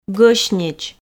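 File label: "гӧснеч", Komi, female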